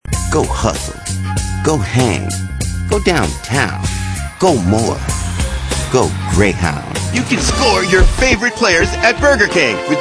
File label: male, mid30s mid40s warm